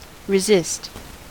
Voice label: "resist", us